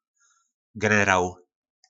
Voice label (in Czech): "Generał", nářečí Po našimu; Generál